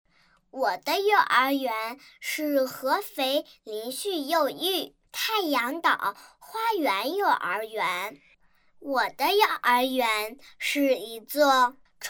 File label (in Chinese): 女童 真童; 真童配音; 太阳岛园真童